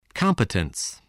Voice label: "competence", english